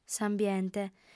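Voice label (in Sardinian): "s'ambiente", Sardinian, female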